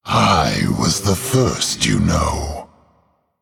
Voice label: Male Undead Orc Play

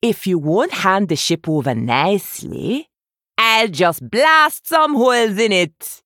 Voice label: Female Troll; Hooktusk; Story Faelin Mission3 Exchange E

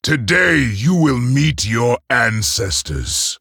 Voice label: Male Orc Threaten

